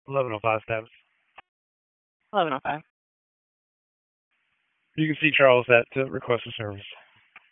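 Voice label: Raleigh Police Southeast District Dispatch; wake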